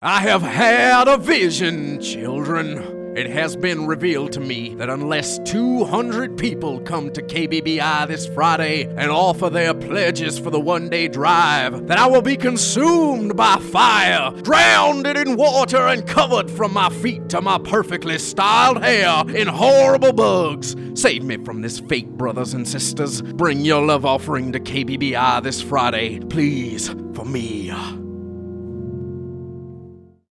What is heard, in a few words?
promo; KBBI